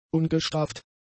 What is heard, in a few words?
"ungestraft", German; adjectives